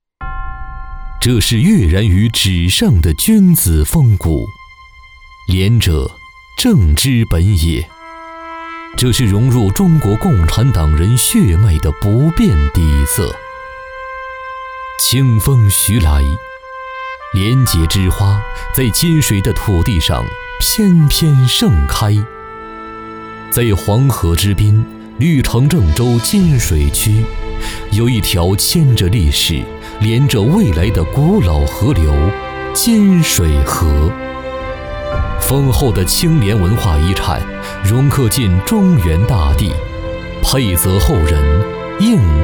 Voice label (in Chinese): 宣传片-男52-低沉大气有力--党政宣传; 郑州金水区